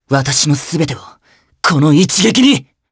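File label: voice Skill, voices heroes, Japanese; Theo; kingsraid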